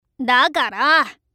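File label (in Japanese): "だから", Japanese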